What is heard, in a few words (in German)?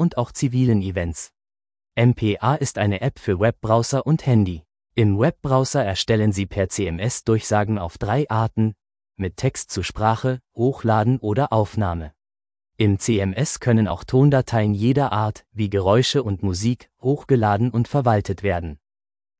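Infodurchsage, German; MPA-App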